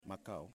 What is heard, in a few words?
"Tilapia", Yimas